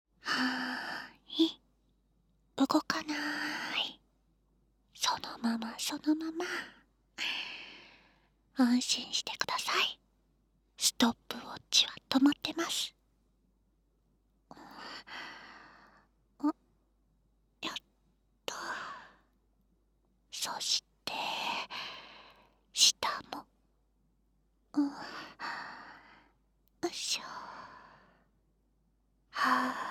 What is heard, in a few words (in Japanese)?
右カウントダウンあり, ASMR